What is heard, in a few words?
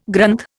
"Granth", Indian